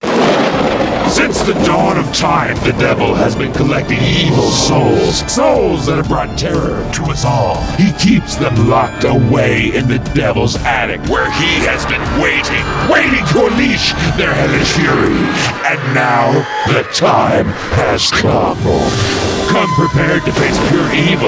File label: Commercial